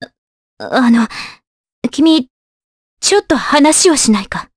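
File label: vox dialogue, voices, Japanese; Scarlet; kingsraid audio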